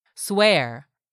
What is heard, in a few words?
"SWEAR", english; 3000 words